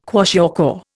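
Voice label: "kwashiorkor", Indian English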